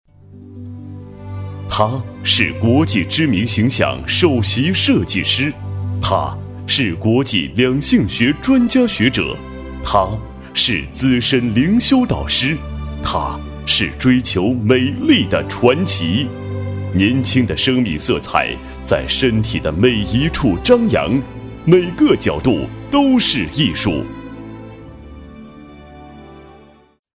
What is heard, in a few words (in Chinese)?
男 人物专题